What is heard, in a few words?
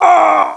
commando pain